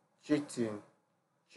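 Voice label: "cheating", Nigerian Pidgin, male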